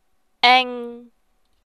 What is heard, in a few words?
"eng", rhymes